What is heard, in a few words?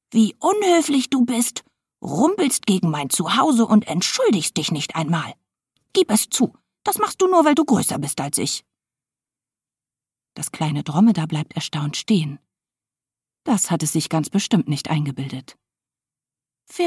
German audiobooks